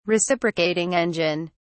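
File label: "reciprocating engine", British English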